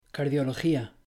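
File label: "Cardiología", Spanish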